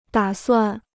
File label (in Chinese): "打算", Chinese